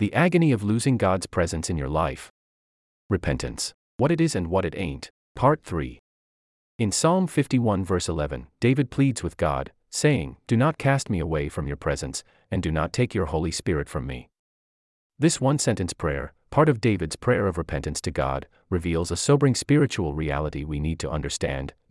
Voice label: voiceover